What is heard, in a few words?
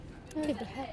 woman in cafe